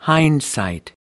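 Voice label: "hindsight", American English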